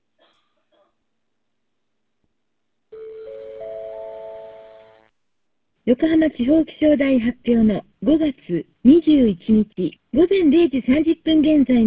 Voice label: gsm 8khz wifi

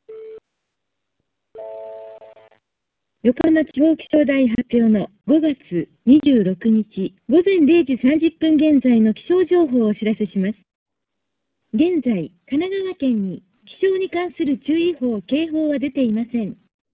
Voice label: hsdpa ilbc

